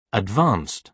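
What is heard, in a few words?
"advanced", British English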